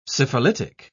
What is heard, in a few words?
"syphilitic", pronounce